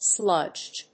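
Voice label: "sludge", English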